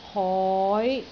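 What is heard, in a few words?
"hoi5", Cantonese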